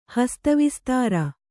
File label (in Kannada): "ಹಸ್ತವಿಸ್ತಾರ", Kannada